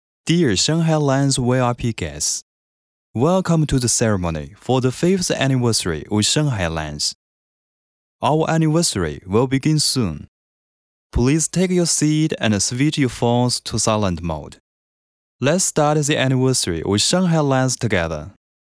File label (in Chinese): 男英 外语 国内英语 周年庆开场词